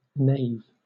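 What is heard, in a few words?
"nave", English, British accent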